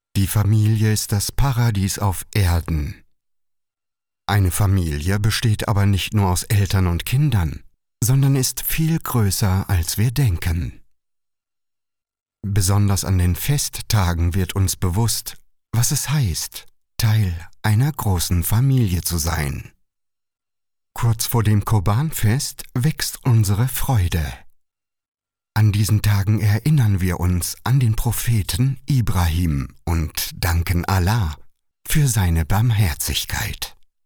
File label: GERMAN